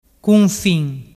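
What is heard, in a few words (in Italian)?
"cunfin", Vernazzese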